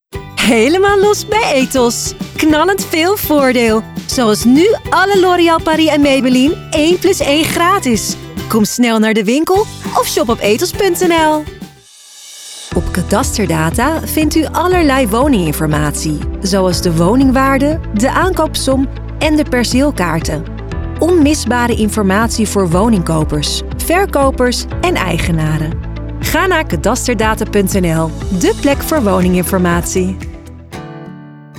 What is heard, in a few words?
Commercials